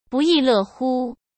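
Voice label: "不亦乐乎", Mandarin Chinese; hsk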